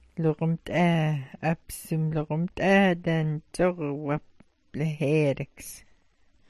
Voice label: "lagmt'aa", smalgyax